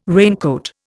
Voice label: "raincoat", Indian